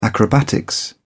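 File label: "acrobatics", British English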